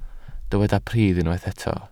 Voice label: "pridd" Welsh

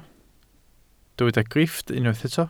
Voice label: "grifft", Welsh

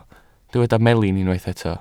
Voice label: "melin", Welsh

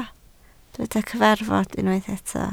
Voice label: "cyfarfod", Welsh

